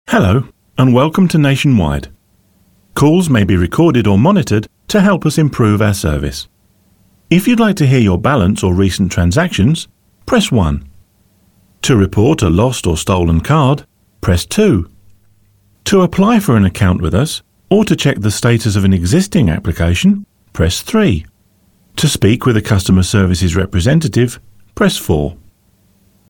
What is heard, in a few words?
male English British